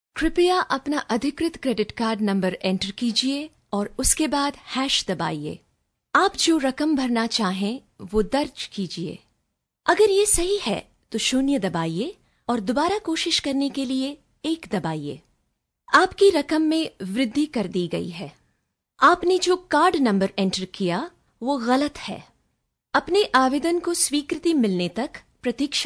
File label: Hindi Female